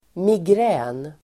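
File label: "migrän", Swedish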